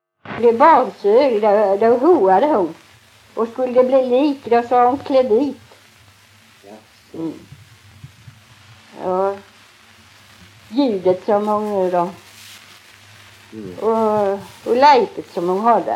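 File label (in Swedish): Tving, Swedish dialect; svenska sagor; Folkminnen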